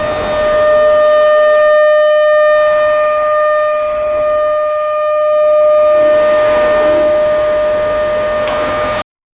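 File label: please evacuate this building, evacuation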